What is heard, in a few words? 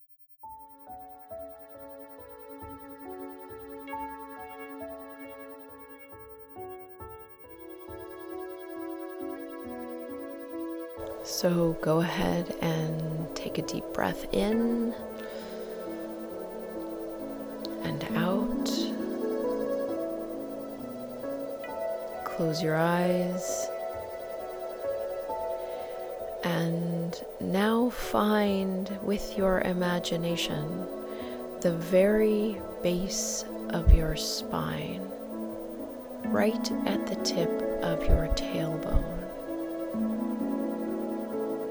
Beginning Meditation; Ocean Within; The Sacred Art of Listening to Your Inner Voice